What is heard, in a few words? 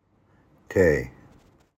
Hebrew